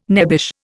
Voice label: "nebbish", Indian

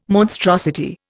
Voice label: "monstrosity", Indian

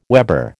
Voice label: "weber", American

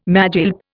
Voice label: "magilp", Indian

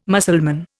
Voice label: "Mussulman", Indian

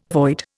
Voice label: "void", Indian

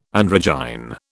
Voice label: "androgyne", British

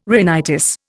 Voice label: "rhinitis", Indian

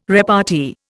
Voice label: "repartee", Indian

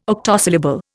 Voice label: "octosyllable", Indian

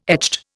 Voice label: "echt", Indian

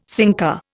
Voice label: "sinker", Indian

